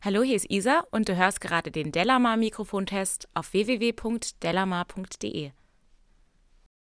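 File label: speech female; fame f47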